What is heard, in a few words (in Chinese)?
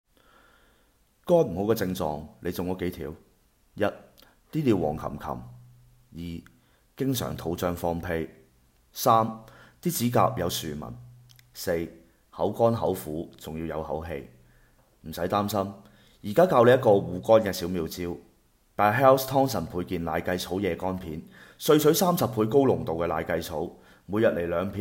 粤语配音